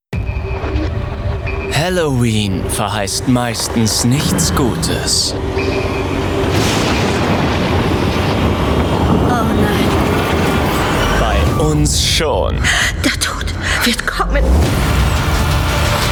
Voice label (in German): Trailer, maenner; NBC SYFY; Halloween Special